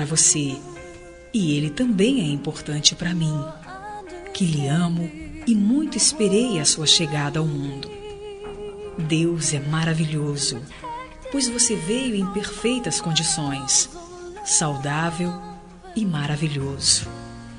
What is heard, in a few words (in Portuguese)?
Aniversário de Filho – Voz Feminino; Telemensagens para todas as ocasiões